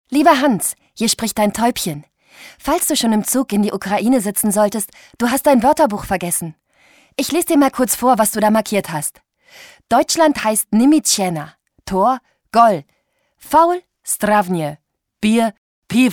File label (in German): Radiowerbung